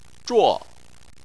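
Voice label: "zhuo" fourth tone, chinese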